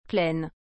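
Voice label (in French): "pleine", French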